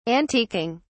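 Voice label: "antiquing", British English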